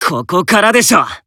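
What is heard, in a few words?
Voice Emo Inspire Self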